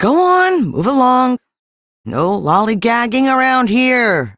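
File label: Ambient Female Sweeper, Dialog; Duviri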